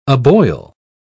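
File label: "aboil", British English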